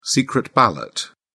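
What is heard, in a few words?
"secret ballot", British English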